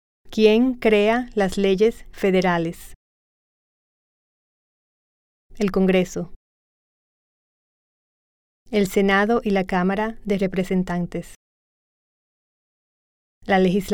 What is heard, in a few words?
Spanish